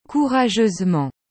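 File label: "courageusement", French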